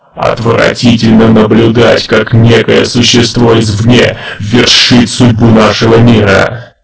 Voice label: announcer